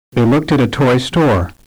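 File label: They looked at a toy store Non-Compound, Stress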